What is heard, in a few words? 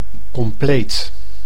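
"compleet", Dutch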